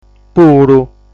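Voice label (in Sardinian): "Puru", Sardinian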